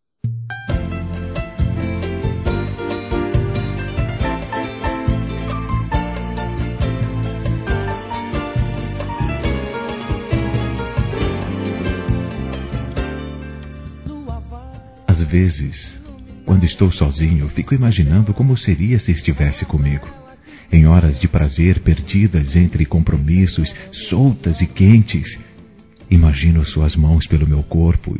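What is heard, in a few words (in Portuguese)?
Romant. picante, feminino; Telemensagens; Românticas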